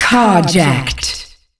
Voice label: Car jacked, Announcer Voice, female; Unreal Tournament; ut2004

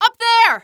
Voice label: up there, female; Half-Life 2

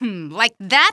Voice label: like that, female; Half-Life 2

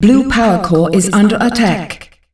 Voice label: "Blue Powercore under attack", announcer female; Unreal Tournament; ut2004

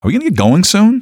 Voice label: get going soon, male; Half-Life 2

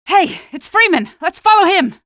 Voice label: squad reinforce group, female; Half-Life 2

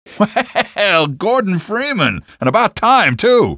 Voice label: about time, male; Half-Life 2

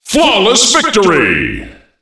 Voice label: Flawless victory, announcer voice lines; Unreal Tournament; ut2003

